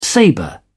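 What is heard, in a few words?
"sabre", British English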